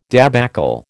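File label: "débâcle", American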